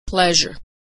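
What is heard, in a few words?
"pleasure", American English pronunciation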